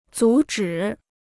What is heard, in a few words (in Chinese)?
"阻止", Chinese